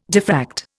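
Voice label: "diffract", Indian English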